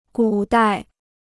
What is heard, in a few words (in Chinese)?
"古代", Chinese